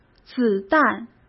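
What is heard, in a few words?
"子弹", Mandarin Chinese; chinese-flashcards; hsk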